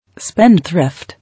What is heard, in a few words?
"Spendthrift", English